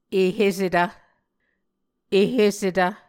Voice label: "ehesetv", Muscogee

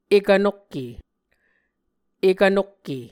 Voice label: "ekv nokke", Muscogee; Mvskoke Words with Repeated Consonants; Onvkv Ohhvyayices

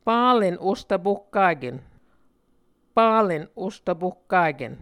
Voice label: "palen ostvpohkaken", Muscogee

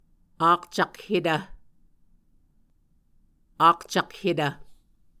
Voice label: "akcakhetv", Muscogee

